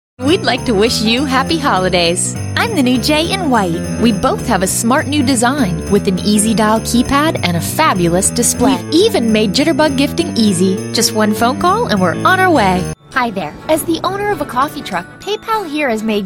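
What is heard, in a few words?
US English Commercial Reel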